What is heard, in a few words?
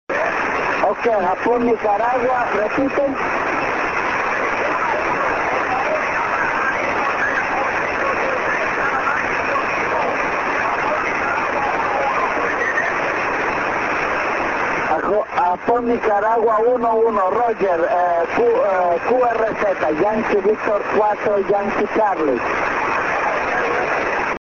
on 6m SSB